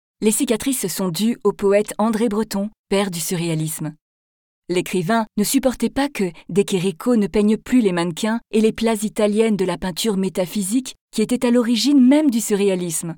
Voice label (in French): audio guide; Villa Necchi Campiglio; Salone